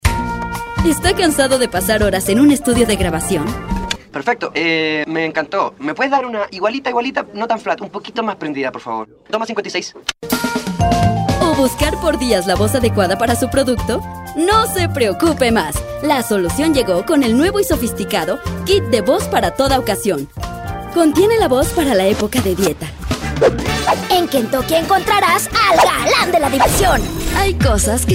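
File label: Latin American Mexican female commercial; voice demos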